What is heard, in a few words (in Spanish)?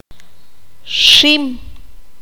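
"araña", Q'anjob'al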